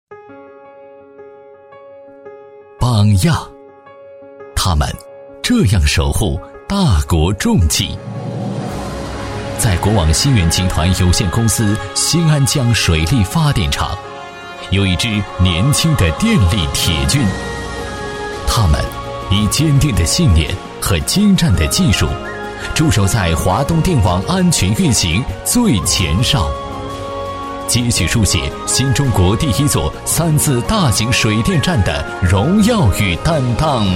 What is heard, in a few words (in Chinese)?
男 大气宣传 昂扬大气; 国网电力; 记录讲解